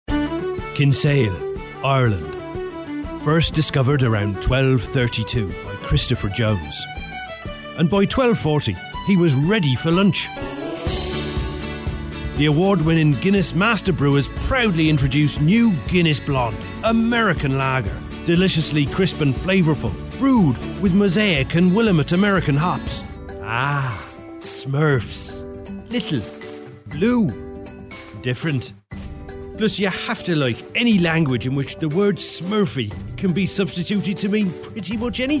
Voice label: Irish Accent